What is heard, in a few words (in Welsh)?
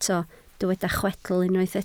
"chwedl", Welsh